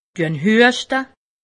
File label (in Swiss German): "huschte", alsace; medecine